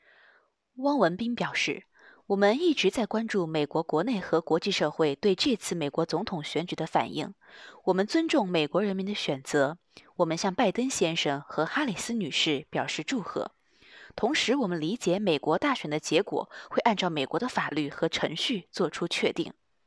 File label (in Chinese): 播音